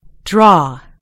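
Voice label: "draw", British English; gcompris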